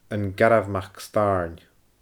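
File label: "An Garbh MacStàirn", Scottish Gaelic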